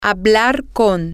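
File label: to speak talk with, spanish, female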